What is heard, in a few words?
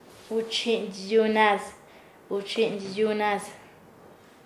"volverse mezquino", Nasa Yuwe; paez